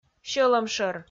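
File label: "сьӧлӧмшӧр", Komi, female; kpv audio dict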